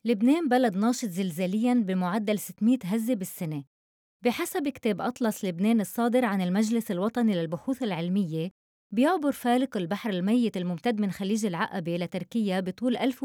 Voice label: Lebanese Dialect Female